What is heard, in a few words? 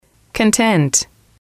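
"content", English, female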